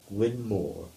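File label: "Gwynne Moor" English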